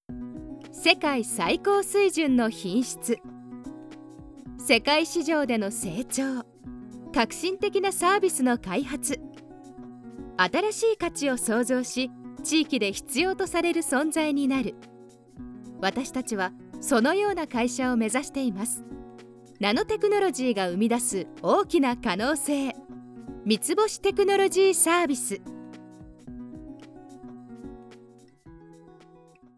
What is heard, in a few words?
narration